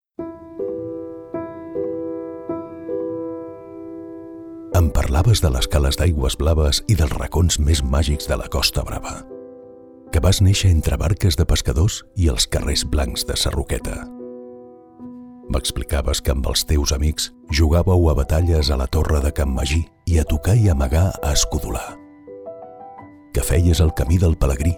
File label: Catalan corporate videos